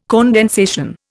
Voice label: "condensation", Indian